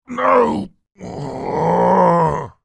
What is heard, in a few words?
human voice male in peril says no then angry roar; zapsplat